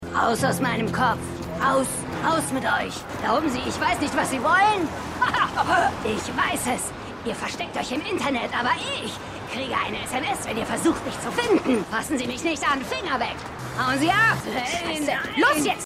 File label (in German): Aufgebrachte Frau; New Amsterdam